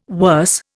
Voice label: "worse", Indian